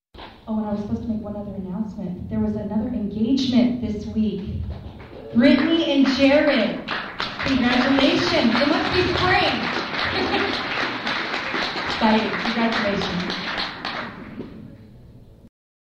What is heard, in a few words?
engagement anouncement